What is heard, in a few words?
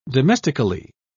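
"domestically", British English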